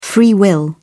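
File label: "free will", British English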